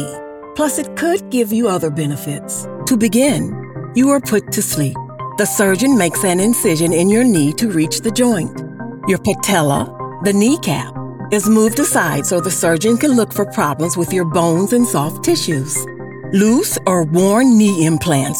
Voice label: Medical Narration